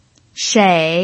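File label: "shei" Mandarin Chinese, third tone, pinyin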